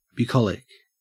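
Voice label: "bucolic", Australian English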